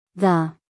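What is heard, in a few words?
"the", American English female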